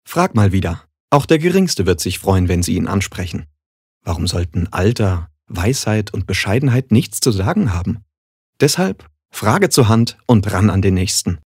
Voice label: Werbung Freundlich

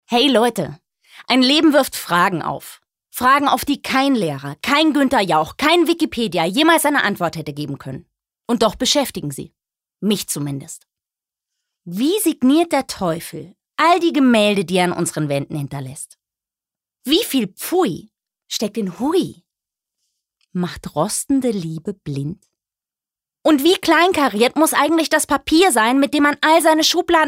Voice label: Schauspiel Dynamisch

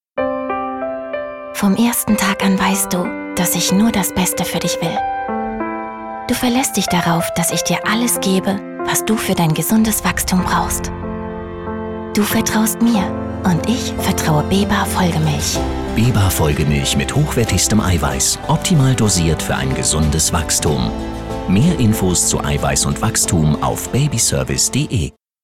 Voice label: Werbung Sanft

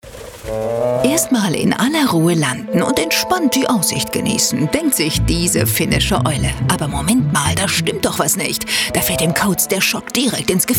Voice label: Lebendig

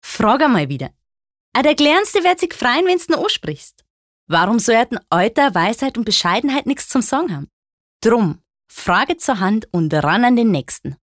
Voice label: Dialekt Bayerisch

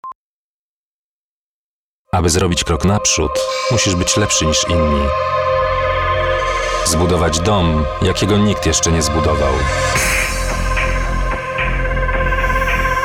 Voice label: POL Werbung Neutral

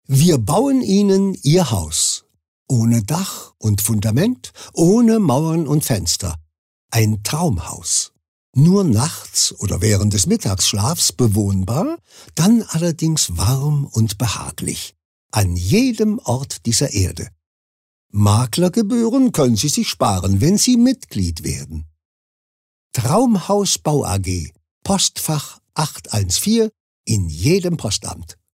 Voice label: Werbung Freundlich

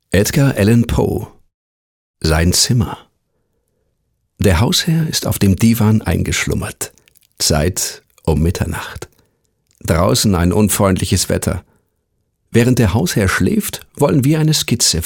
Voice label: Sanft; Fremdtake

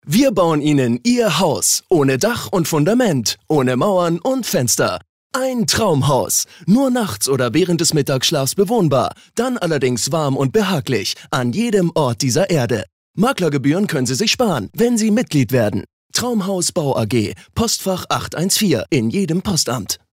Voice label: Werbung, Dynamisch

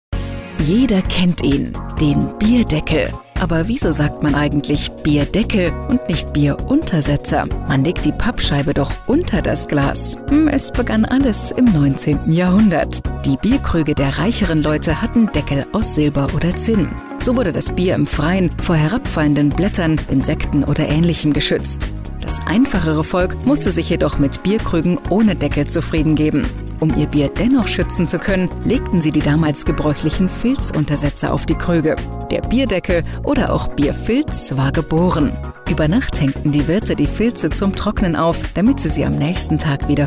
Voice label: Kommentar Dynamisch

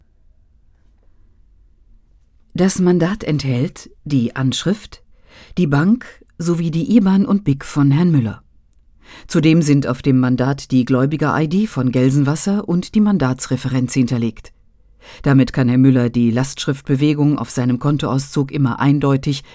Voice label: Kommentar Neutral

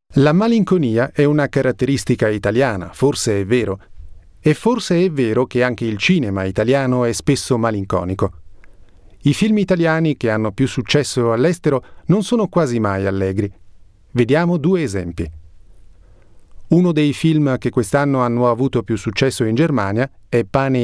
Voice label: Kommentar Dynamisch

